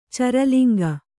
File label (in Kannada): "ಚರಲಿಂಗ", kannada